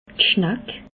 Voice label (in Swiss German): "schnack", Alsace